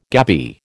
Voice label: "gabby", American